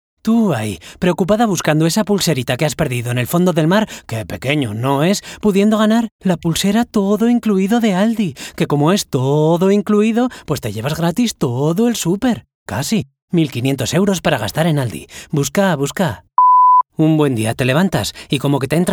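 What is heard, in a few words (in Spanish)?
generico España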